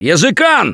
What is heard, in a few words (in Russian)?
warn smoker, left4dead2 russian, Survivor Voice; Gambler